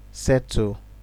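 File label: "settle", Nigerian Pidgin, male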